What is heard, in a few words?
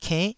"kennh9", taiwanese syllables